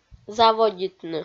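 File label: "заводитны", Komi-Zyrian, female; audio dict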